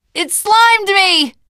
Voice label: generic responses, teen girl, voice; survivor voice